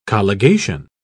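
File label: "colligation", British English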